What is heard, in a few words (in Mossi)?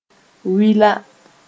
"wɩla", moore